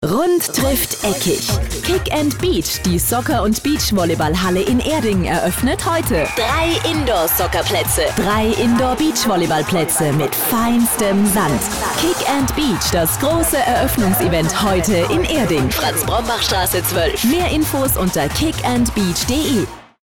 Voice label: Werbung Dynamisch

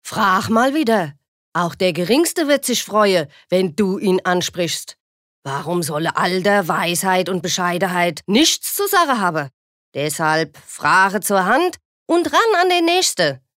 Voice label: Dialekt Hessisch

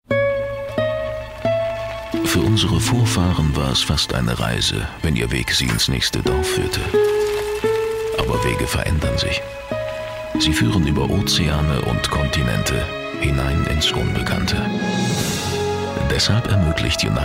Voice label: Kommentar, Neutral

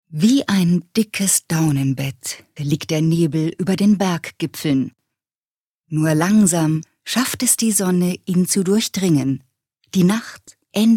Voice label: Schauspiel, Variabel

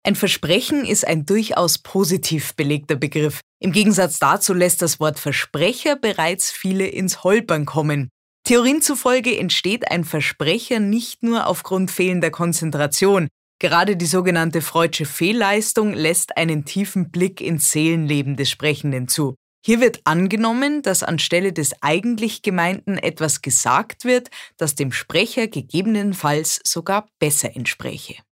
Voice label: Versprecher Bayerisch

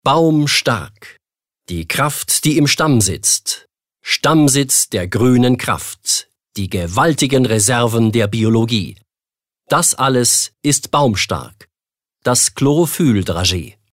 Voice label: Werbung Kraftvoll

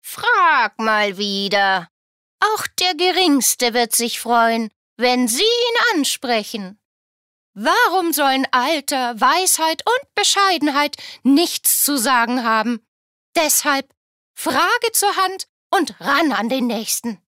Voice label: Charge Comic

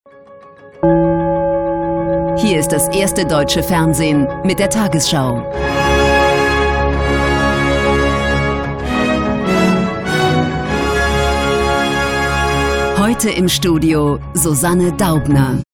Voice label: Tagesschau Kraftvoll; Fremdtake

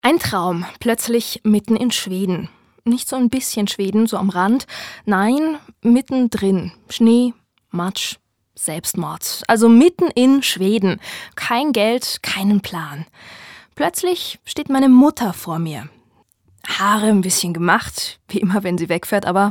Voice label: Schauspiel Neutral

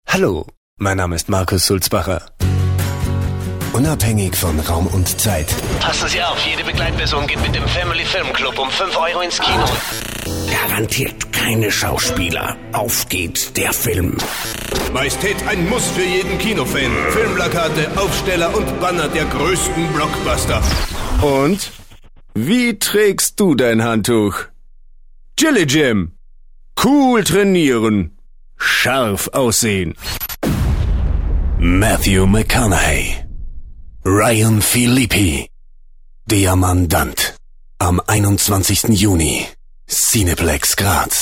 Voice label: Werbung Variabel, voices

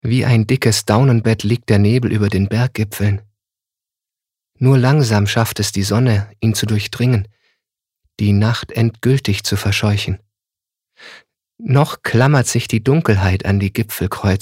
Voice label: Schauspiel, Sanft

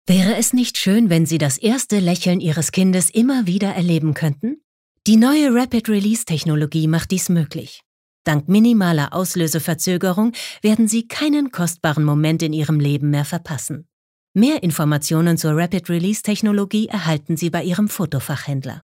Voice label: Freundlich

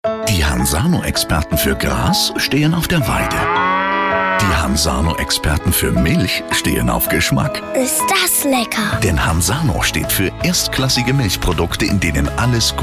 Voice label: W Fremdtake, Freundlich

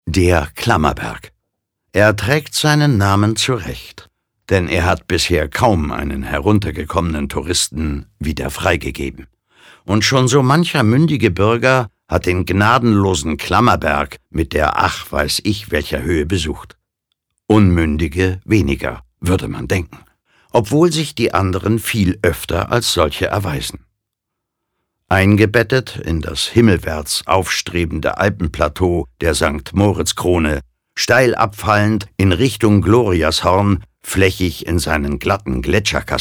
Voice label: Kommentar Neutral

